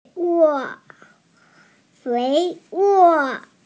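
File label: "fertile", Mandarin Chinese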